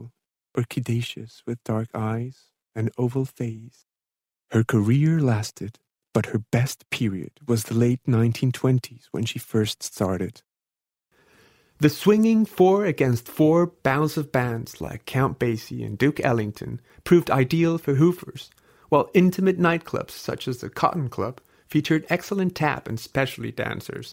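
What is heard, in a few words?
ESLA AccEN, Latin American Spanish accented English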